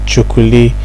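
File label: "chukuli", Nigerian Pidgin, male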